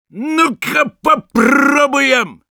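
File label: battle cry, Russian; «Ну-ка, попробуем.»; Sniper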